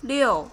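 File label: "Liu", six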